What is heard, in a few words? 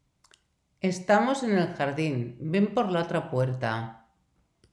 audio Intercom